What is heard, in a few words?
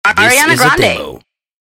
ARTIST DROP